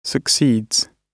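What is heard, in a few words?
"succeeds", British English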